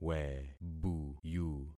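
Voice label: "webuyu", concatenated syllables; Affixing experiment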